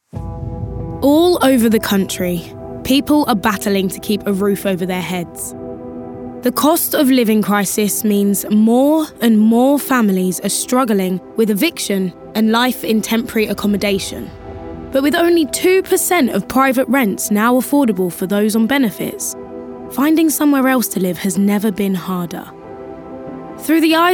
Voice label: Documentary